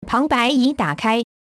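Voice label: Chinese VoiceOver On; EFI Audio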